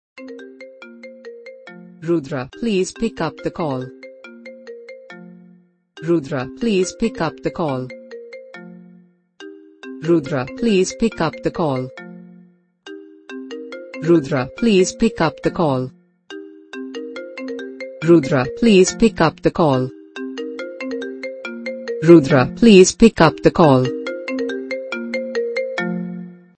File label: Please Pickup The Call Hindi Name Ringtone